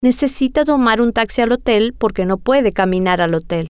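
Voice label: Necesita Tomar Taxi Porque Puede Caminar Hotel, Spanish, female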